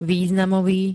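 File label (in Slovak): "významový", Slovak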